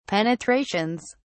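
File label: "penetrations", English, British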